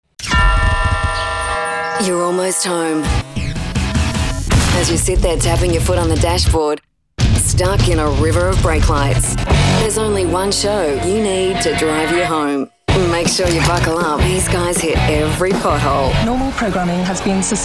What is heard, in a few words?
Promos